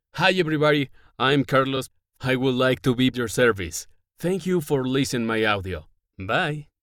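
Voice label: Spanish English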